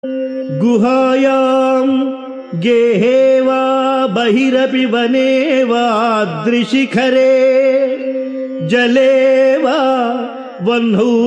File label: Sanskrit; Sri Adi Sankaracharyas Sivananda Lahari; Adi Shankaracharya; श्रीशिवानन्दलहरी १२